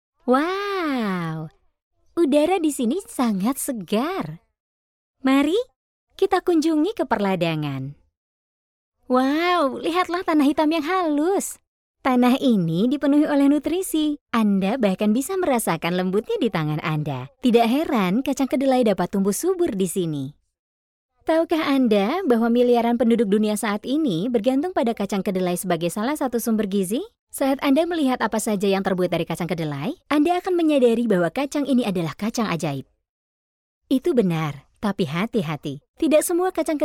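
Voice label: ID General, Indonesian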